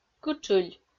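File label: "кытшыль", Komi, female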